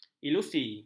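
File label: "ilusi", Malay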